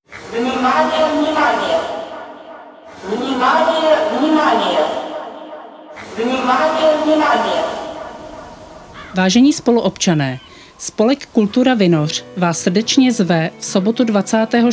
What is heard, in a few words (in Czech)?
Voiceover; Uklid Cernobyl